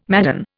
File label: "madden", Indian